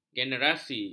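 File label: "generasi", Malay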